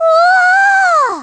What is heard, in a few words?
Amazed